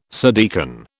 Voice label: "subdeacon", British